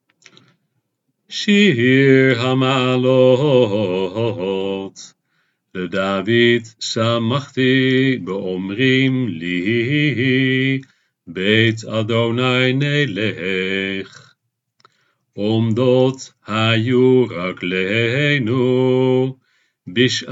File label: haftara, Psalm 122; Sjabbat Tekoema; haftaralezingen